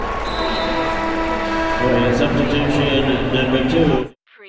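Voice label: Arena Announcement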